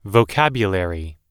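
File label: "vocabulary", English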